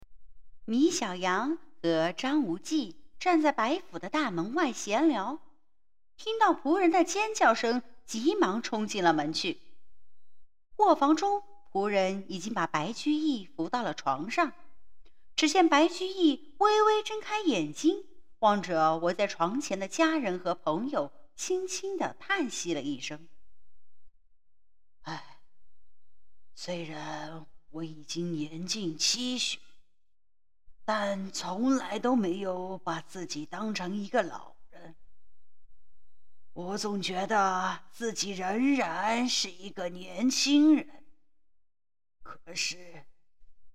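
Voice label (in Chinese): 儿童读物节选; 那些骑驴的大诗人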